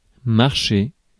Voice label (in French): "marcher", Mots francais